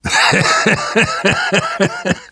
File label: reaction positive, survivor voice; namvet; Left 4 Dead 2; left4dead2 dlc2